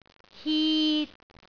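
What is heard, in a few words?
"hit3", Cantonese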